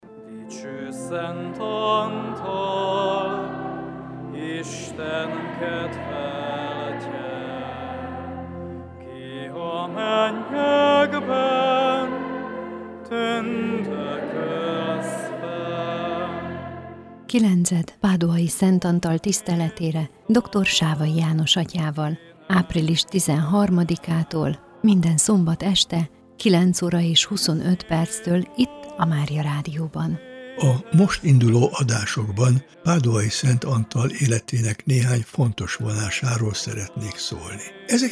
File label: Radiospot; Szent Antal kilenced; Musoros reklam szpotok; Sepsiszentgyörgy